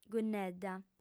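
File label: "gunnedda", Sardinian, female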